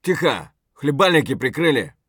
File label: silence; Escape from Tarkov; scav5